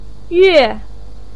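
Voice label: "yue4" Chinese syllable, pinyin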